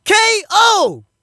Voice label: Narration ROUND KO